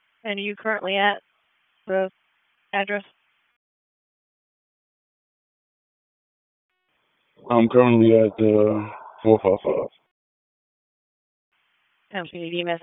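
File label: Raleigh Police Northeast District Dispatch, p25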